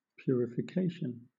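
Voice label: "purification", British English; pronunciations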